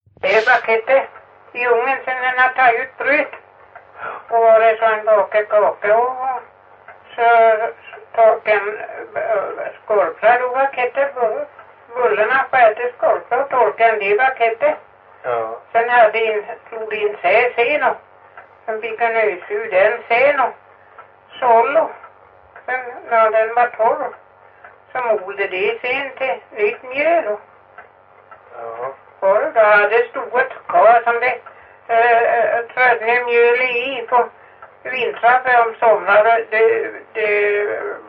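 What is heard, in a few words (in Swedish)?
Stenåsa Öland, Svenska sagor dialekter; Folkminnen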